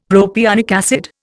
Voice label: "propionic acid", Indian; Dictionary

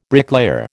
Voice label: "bricklayer", American

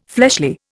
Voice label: "fleshly", Indian

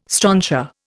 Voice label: "strontia", Indian

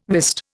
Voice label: "wist", Indian

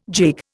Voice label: "gigue", Indian

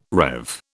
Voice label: "rev", British

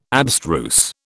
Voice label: "abstruse", British

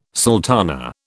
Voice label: "sultana", British

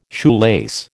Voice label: "shoelace", American

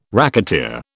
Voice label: "racketeer", British

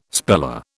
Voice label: "speller", British

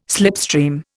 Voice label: "slipstream", Indian

